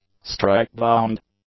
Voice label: "strike-bound", English; adjectives